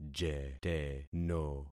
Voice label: "jeteno", concat syllables; Affixing experiment